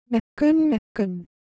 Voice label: Korean, Ideo Phones; Texture